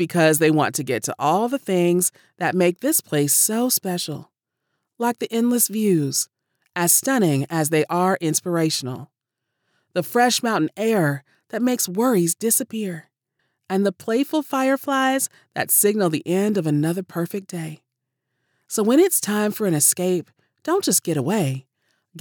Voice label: Blue Ridge Mountains, Blue Ridge -Travel Ad, African-American, Female Voice Over; Radio Commercials